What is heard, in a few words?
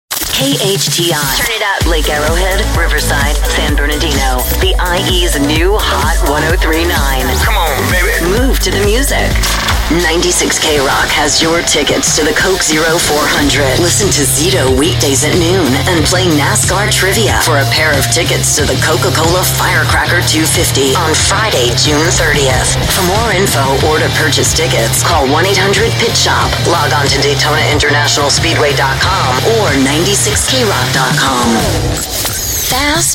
US English Radio Imaging